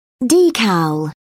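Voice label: "decal", English, British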